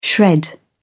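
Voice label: "shred", British English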